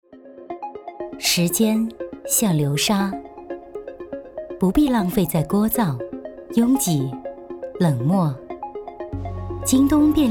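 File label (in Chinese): 广告便利店; 京东便利店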